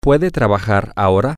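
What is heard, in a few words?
can she work now, Spanish, male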